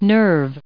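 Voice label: "nerve", us English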